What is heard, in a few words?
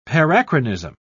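"parachronism", British English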